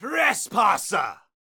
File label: Caster Elite Alert Line, dialogue